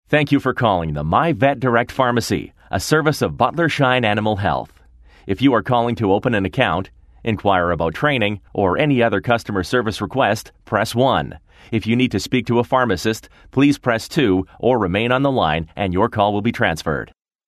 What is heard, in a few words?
Voice Prompt